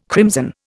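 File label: "crimson", Indian English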